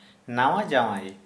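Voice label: Santali, male, groom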